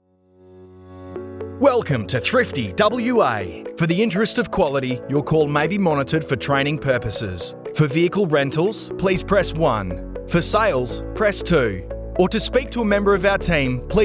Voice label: VO Demo Reel